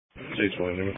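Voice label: Police Patrol Dispatch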